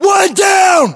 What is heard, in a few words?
inform killfirm infantry, US